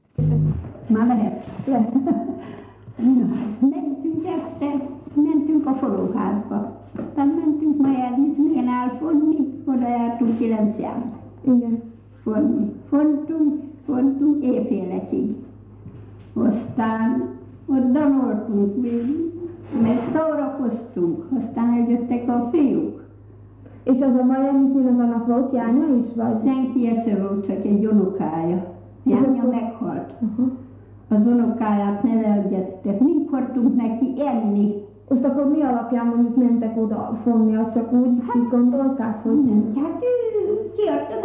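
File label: dialect collections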